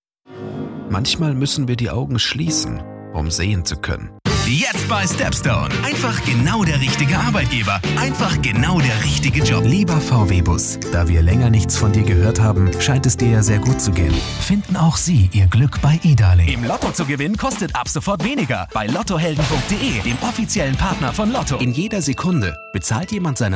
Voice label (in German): werbung klein